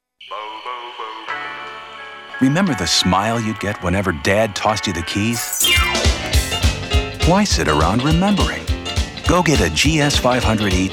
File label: Commercial